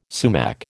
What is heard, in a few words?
"sumac", American